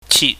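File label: "chit", Cantonese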